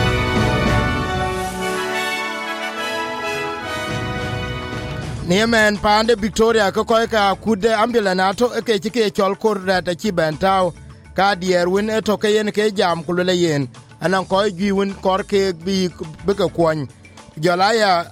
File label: dinka, podcast; SBS Dinka News 03/12/2022